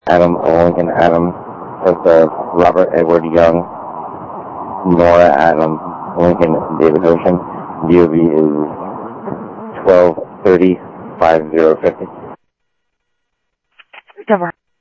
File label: Interop Mutual Aid, scanner audio